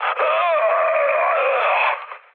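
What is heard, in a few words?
Serbian damaged pilot mood high, speech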